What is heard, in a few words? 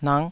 "nak5", taiwanese